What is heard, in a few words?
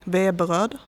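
"Veberöd", Swedish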